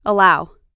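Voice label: "allow", US English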